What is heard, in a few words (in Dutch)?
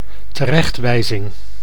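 "terechtwijzing", Dutch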